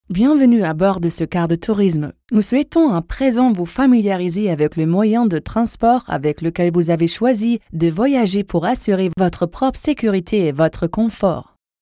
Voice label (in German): FR Fremdtake Neutral